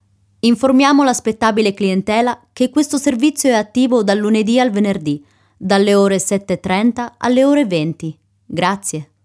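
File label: IT Announcement, Italian; GoLocalise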